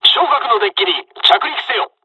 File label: landing, dialogs japanese; War Thunder